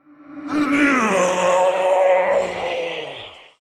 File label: Androgynous Monster Death; Hearthstone; датамайн обновления 5.0